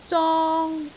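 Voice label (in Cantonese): "zong1", Cantonese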